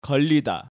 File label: "Geollida", Korean